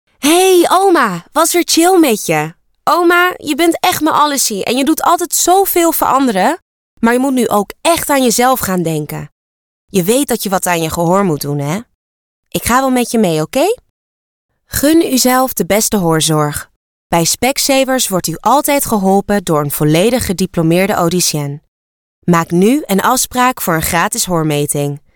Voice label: NL; Specsavers